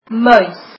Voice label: mouse, afrikaans